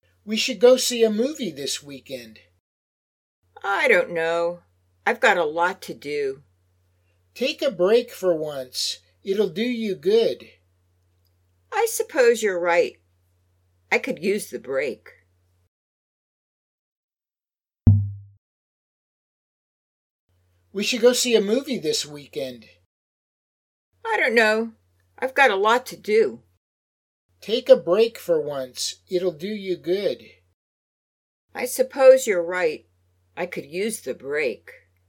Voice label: Natural Speech; Lesson 3